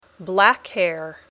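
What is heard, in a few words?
"black hair", English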